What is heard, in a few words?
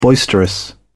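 "boisterous", British English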